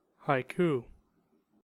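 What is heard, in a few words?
"haiku", American English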